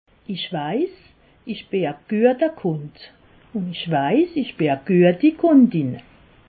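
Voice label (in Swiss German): "kunde", Alsatian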